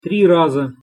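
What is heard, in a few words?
"tri raza", Russian pronunciation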